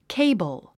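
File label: "cable", English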